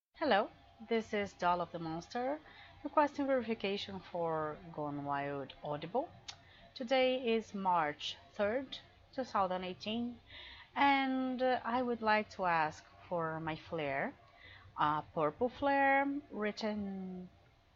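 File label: Female Verification Request; GoneWildAudible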